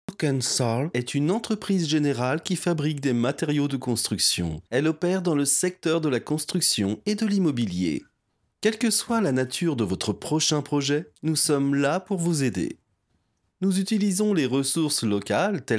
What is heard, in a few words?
French, Male